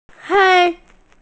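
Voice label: "hei1", Mandarin Chinese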